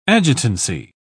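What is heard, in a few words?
"adjutancy", British English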